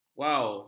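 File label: "wau", Malay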